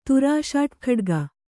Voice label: "ತುರಾಷಾಟ್ಖಡ್ಗ", Kannada